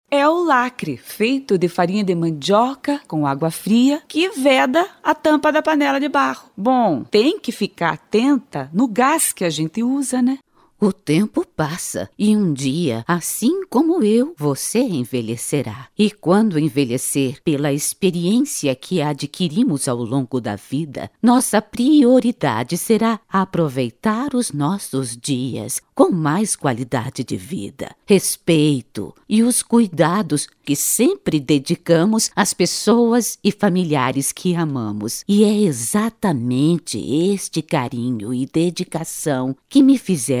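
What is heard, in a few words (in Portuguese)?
Feminino, Português - Brasileiro, Caricata, Voz Padrão - Grave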